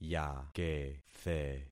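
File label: "yakethe", concatenated syllables; Affixing experiment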